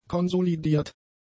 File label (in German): "konsolidiert", German; adjectives